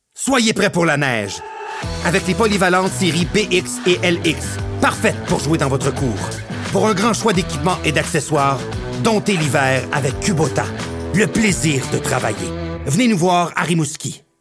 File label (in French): Pub TV